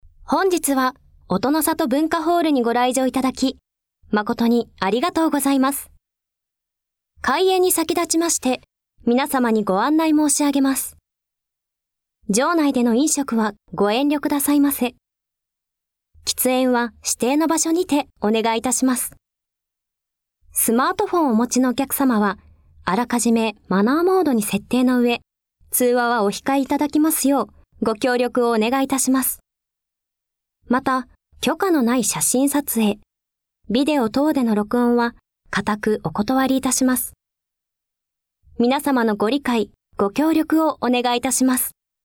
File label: narration